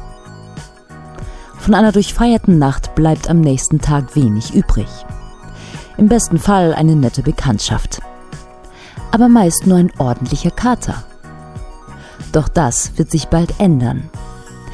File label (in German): sprechertext nachhaltig feiern